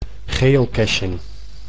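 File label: "geocaching", Dutch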